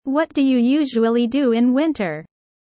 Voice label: low